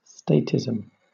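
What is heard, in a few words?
"statism", British English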